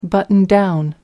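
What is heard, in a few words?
"button down", English, British English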